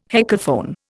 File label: "heckelphone", Indian